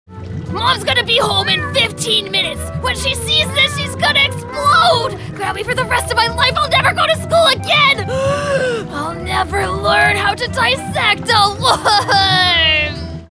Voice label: Male Child Stressed, Character, Cartoon, Anxious Male Child